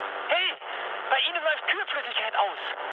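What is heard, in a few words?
German damaged water mood med, speech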